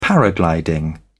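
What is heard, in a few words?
"paragliding", British English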